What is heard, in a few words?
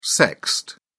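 "sexed", British English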